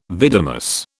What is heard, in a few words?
"vidimus", British